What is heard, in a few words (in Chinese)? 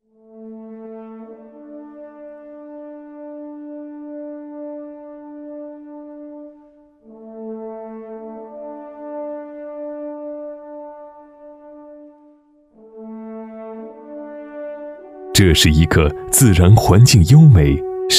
男 广告 浑厚深沉 外国招商中文版, 国语男声配音